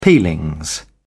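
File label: "peelings", English, British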